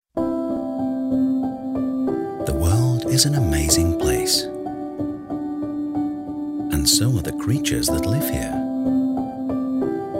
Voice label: British Voiceover Natural History Documentary Medical Science Promo